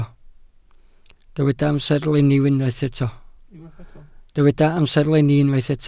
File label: "amserlenni", Welsh